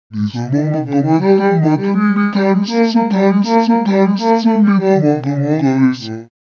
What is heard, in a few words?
voice, pitchshift -12; i3 dsp quattro x